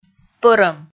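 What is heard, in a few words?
"пӧрӧм", Komi, female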